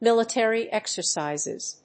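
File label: "Military exercises", English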